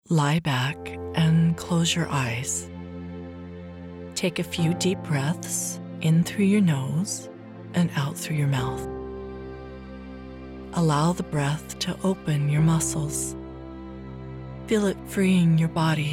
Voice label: Guided Relaxation